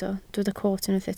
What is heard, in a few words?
"coot", Welsh